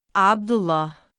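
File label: "3abdullaa" male, Arabic; 口語的に読むとAbd+Allahがつながってアブダッラーと聞こえることが多く英字表記AbdallahやAbdallaなどが派生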